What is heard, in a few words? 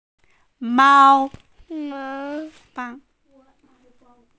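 "mao1", Mandarin Chinese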